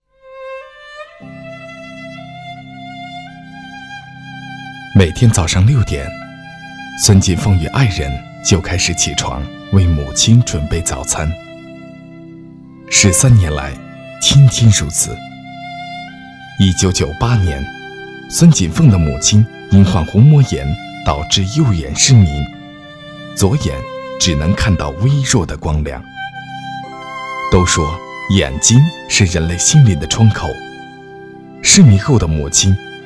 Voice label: 男96-专题-专题（人物讲述）

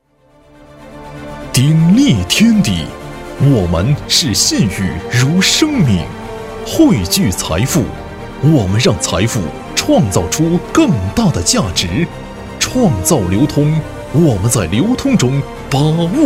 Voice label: 男 专题; 辽宁鼎辉投资集团有限公司

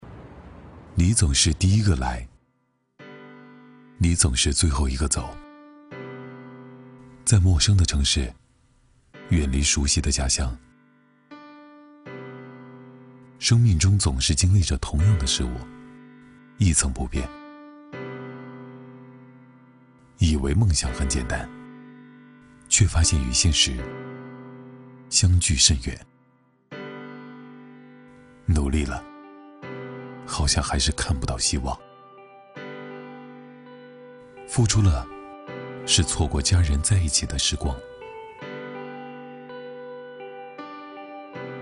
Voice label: 男 旁白; 丹德莱样音

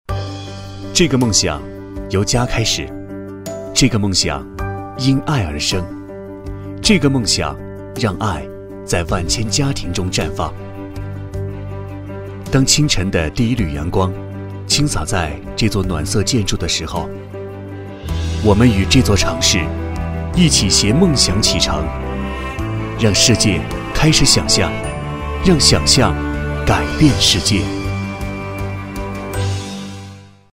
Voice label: 男 旁白 【励志】梦想改变世界

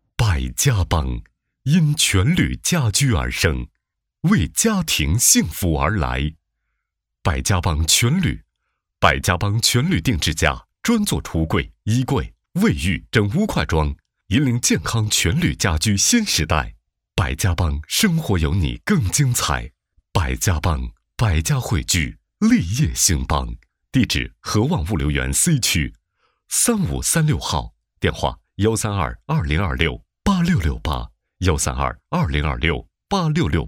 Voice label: 男 广告; 百家邦公司

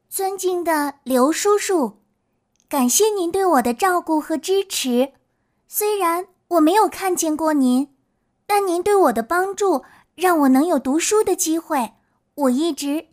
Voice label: 女童十岁左右; 角色

